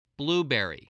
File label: "blueberry", spelling